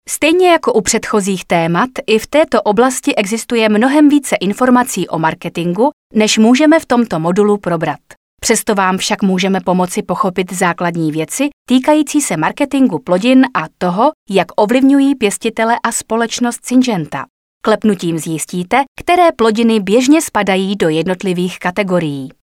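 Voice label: Female Czech e-learning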